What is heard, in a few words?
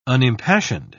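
"unimpassioned", British English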